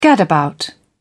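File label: "gadabout", British English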